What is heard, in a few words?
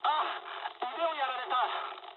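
Japanese damaged pilot mood high; War Thunder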